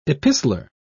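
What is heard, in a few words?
"epistler", British English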